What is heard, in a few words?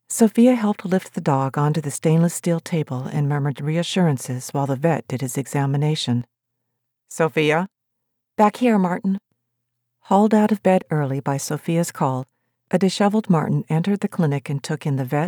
Historical Fiction MF; Baby Doe